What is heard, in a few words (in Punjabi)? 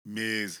"mez mej", Punjabi